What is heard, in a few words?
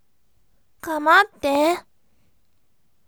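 voice, bright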